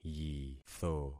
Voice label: "yitho", concat syllables; Affixing experiment